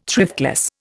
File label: "thriftless", Indian